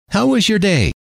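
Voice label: how was your day, male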